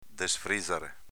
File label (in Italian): "desfrizare", Veneto; v. soffriggere